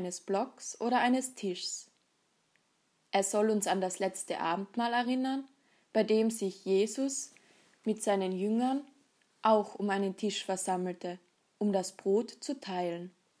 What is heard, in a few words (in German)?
Audioguide Altar; Kinderliturgie